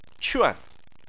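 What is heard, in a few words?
"quan", Chinese, tone 4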